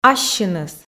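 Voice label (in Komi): "ассьыныс", Komi, female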